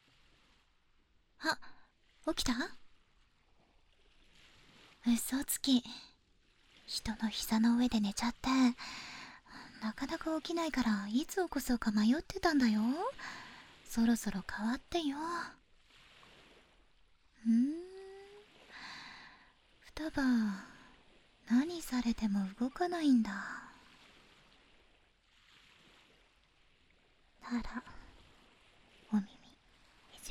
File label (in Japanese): 耳かきボイス; 悠; ノンケの幼馴染 誘拐作戦 ~鍾乳洞で百合エッチ~